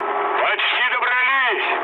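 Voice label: near waypoint, mood low, Russian; War Thunder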